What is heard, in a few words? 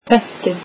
icelandic reservation